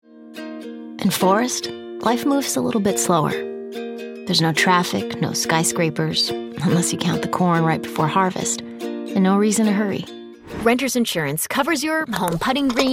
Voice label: women commercial; Conversational, funny, Millennial. Gender-neutral; from Jodi Foster to Kristin Bell-sound.